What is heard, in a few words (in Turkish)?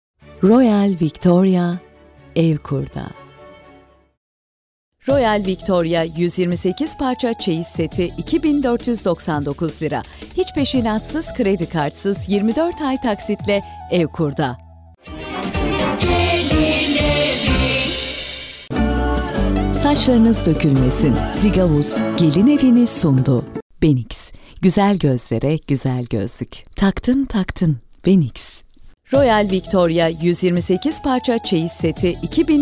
KADIN